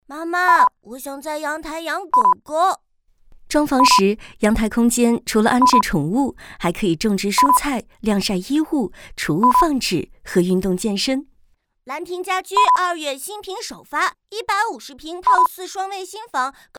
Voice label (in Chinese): 家居角色儿子 妈妈试音; 岚庭家居